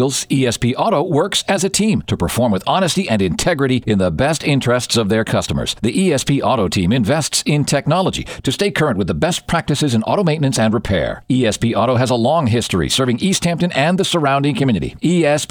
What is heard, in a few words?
Basketball Ad; ESP Auto; Easthampton